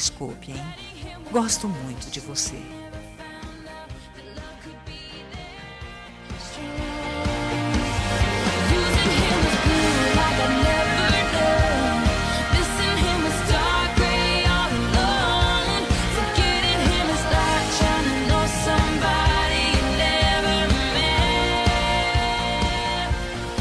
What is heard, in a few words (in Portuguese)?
DESCULPAS AMIZADE-F; Telemensagens; fem; Telemensagens 2017